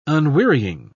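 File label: "unwearying", English, British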